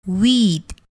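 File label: "weed", English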